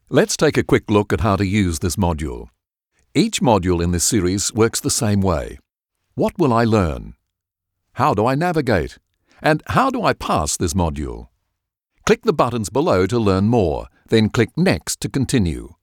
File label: eLearning Corporate VO